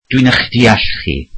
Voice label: welsh I understand you